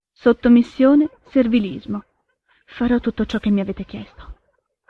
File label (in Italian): sottomissione servilismo